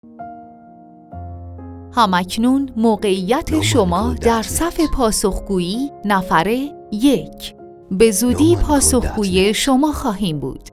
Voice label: پیام اعلام نوبت تا نفر 99ام در صف پاسخگویی با گوینده

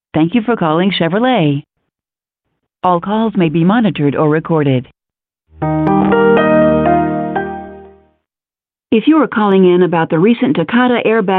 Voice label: نمونه متن ivr; شرکت شورولت

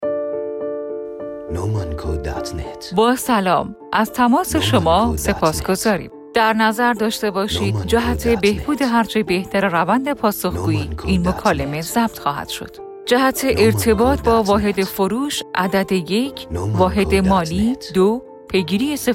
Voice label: صدای منشی تلفنی با صدای گوینده خانم

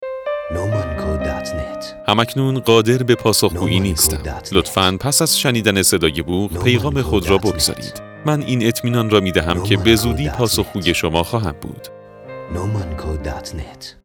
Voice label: لطفا پیغام خود را بگذارید با صدای گوینده مرد

